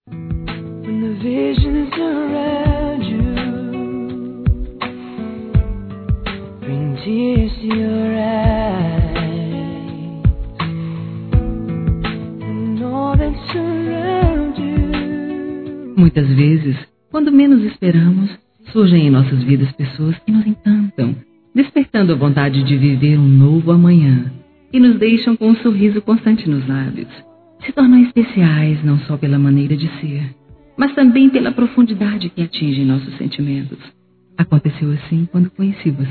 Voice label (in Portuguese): Mensagem Carinhosa Discreta Feminino; Telemensagens; Telemensagens 2017